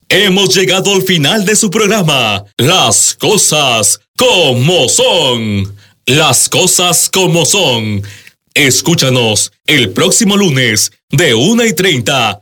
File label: SPANISH